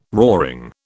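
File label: "roaring", British English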